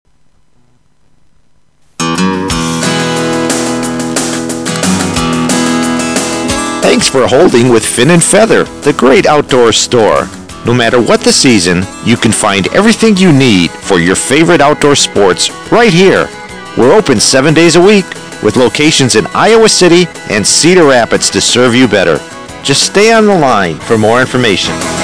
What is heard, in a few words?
american advert on hold; english course; AudioEnglish